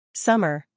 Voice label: "summer", English